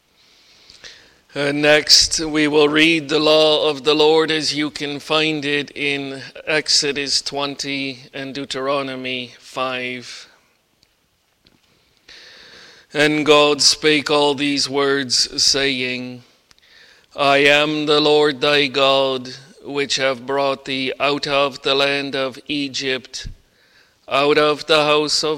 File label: Bible Reading; The Lord's Incomprehensible Goodness